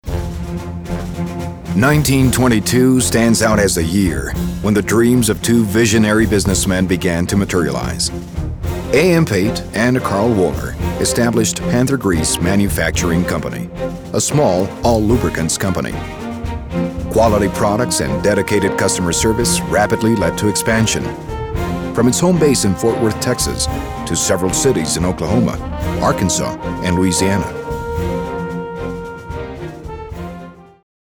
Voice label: Corporate Forth English, locucion